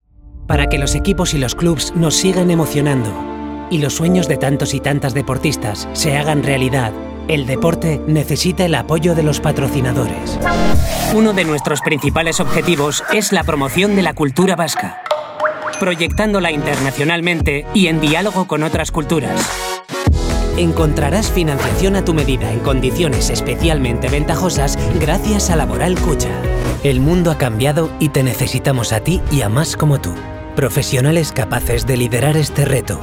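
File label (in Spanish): corporativo España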